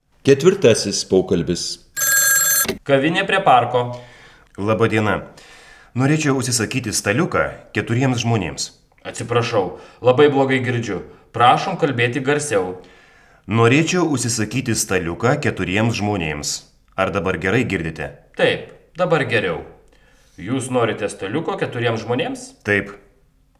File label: Dialog